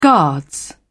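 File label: "guards", British English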